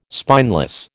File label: "spineless", American